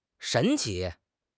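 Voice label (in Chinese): "神奇", Chinese; HSK6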